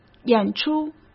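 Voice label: "演出", Mandarin Chinese; hsk; chinese-flashcards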